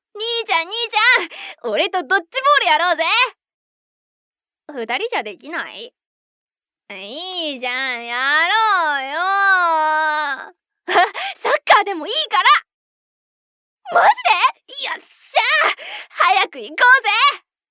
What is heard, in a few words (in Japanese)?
活発な弟